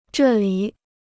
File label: "这里", Chinese